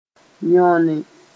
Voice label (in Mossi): "yõne", moore